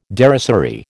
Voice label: "derisory", American